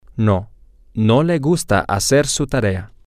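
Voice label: no he doesnt like to do his homework, spanish, male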